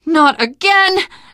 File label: reaction negative, teen girl; survivor voice